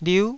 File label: "liu9", Taiwanese syllable